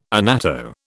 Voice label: "annatto", British